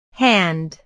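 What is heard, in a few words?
"hand", English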